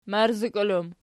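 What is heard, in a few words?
"marzikol" plural, Archi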